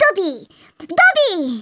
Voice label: ORDERS, Speech, Polish; Worms Armageddon